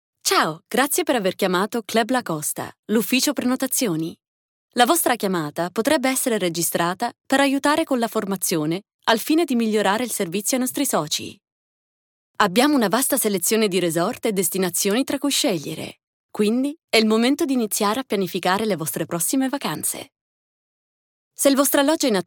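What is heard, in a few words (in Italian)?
Italian, IVR; GoLocalise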